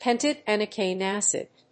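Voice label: "pentadecanoic acid", English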